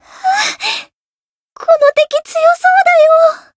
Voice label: encount fear, jp

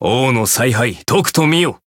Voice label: chant jp; claude

